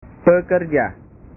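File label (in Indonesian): "pekerja", Indonesian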